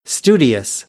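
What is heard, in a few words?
"studious", American English; AmericanBritish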